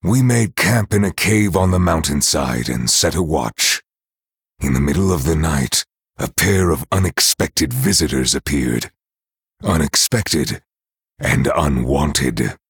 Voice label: VO Story Hero Male Night Elf; Kurtrus